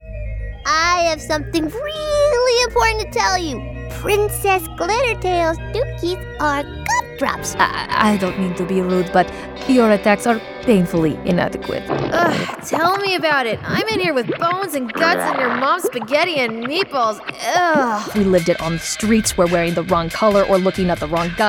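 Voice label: Animation, Voice Over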